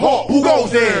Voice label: halt who goes there, male; questions